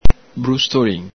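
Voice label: "brustolin", Veneto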